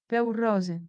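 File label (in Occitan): "Peu rose", Gascon; Prêts pour l’Òc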